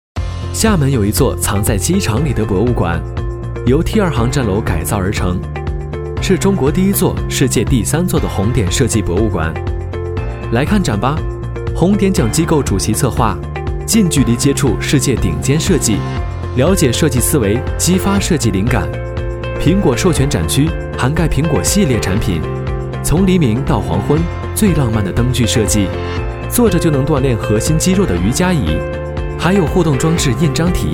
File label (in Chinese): 男 MG 干净利落